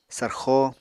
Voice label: "sarxo", Apatani

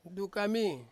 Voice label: "dukami", Ansus; head

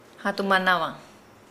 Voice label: "heart", Nukuoro

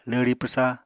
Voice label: "lwri pwsa", Apatani

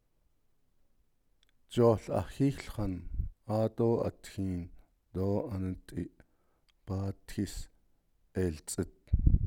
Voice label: navajo; I threw the ball and then it went over the road

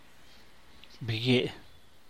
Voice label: "bgiee", San Mateo Macuilxochitl Zapotec; look at; ver, mirar

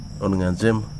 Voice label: "bark", Wahgi; lae unitech

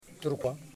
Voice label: "Knee", Yimas

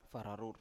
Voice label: "fararur", Biak

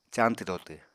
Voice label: "cañtw-dotw", Apatani

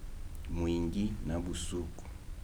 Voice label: "midnight", Kibembe

